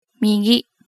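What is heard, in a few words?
"migi", Japanese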